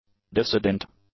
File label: "dissident", English